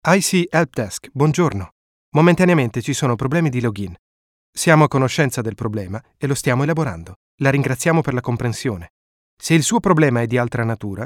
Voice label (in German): italienisch telefon; sprecher